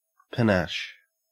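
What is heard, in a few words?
"panache", Australian English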